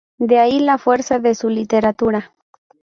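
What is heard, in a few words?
"fuerza", Spanish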